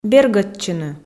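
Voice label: "бергӧдчыны", Komi, female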